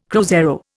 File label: "cruzeiro", Indian